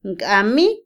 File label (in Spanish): Otomí, mujer; primavera; INALI